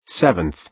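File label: "seventh", American English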